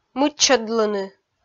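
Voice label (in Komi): "мыччӧдлыны", Komi, female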